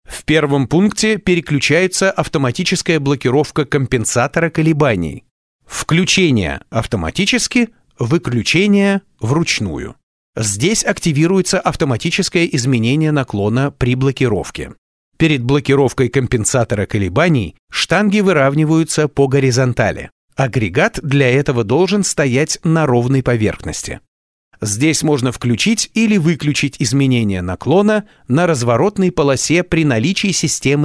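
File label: poyasnenie Russian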